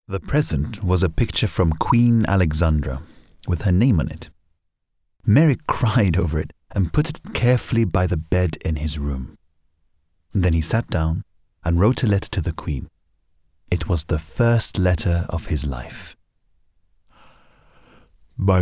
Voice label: Audiobook; Elephant Man